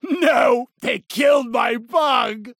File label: Kill Cry; Steve